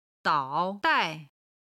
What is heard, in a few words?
"dao3 dai4", Chinese